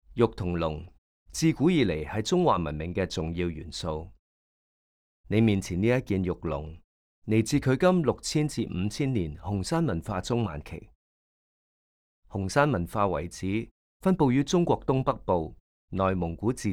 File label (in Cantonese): Can VO; audio guide